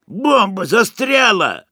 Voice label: Sniper, ru; «Бомба застряла!»; Реплики снайпера

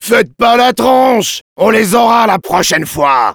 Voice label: jeers fr; Demoman

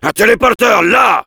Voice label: need teleporter, fr; "Un téléporteur, là."; Heavy

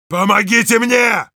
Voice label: help me, ru; Heavy

